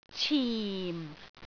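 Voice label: "cim4", Cantonese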